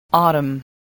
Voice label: "autumn", english